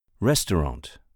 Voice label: "restaurant", words often mispronounced